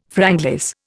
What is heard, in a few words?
"franglais", Indian